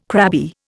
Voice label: "crabby", Indian